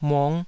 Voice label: "mung5", taiwanese syllables

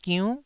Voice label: "giunn9", taiwanese

